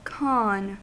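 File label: "khan", US English; pronunciations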